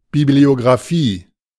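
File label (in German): "Bibliografie", German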